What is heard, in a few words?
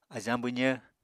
"ajañ-bwzv", Apatani